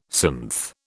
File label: "synth", British